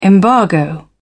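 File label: "embargo", British English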